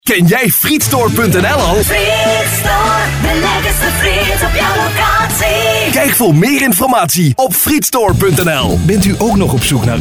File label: Television